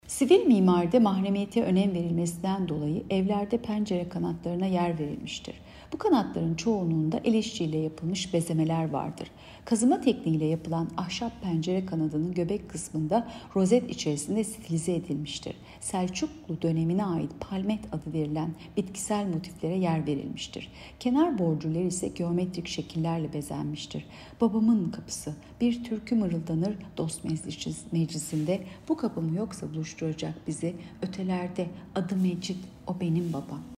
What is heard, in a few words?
turkce